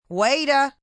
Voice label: "Waiter", female

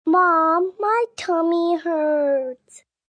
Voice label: My Tummy Hurts, child, girl, sad; Kids; Talking

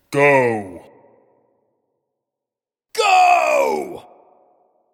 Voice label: The word ‘Go’ shouted in male voice x2; Exclamations

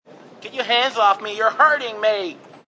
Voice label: "Get Hands Off Me", Male; Talking

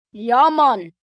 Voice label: Ya Mon, Greeting, 1 boy, 10 yrs; Talking; VoiceBox

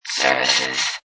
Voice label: Robot Voice "Services"; Robot Sound Effects; Lynne Music

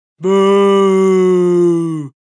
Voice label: "Boo", Male; Exclamation; VoiceBox

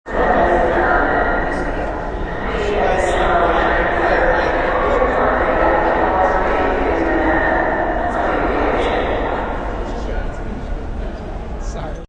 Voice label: Trn Stn PA, Train station PA announcement.; Train Stations; Backgrounds

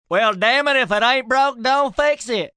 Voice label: Aint Broke, Sayings, male; Talking Sound Effects

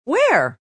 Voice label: "Where" Female, exclamation; VoiceBox

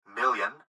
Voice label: Gps Voice, Male; Clip Speaker Adult Male; Recorded Voice; Blastwave; Technology

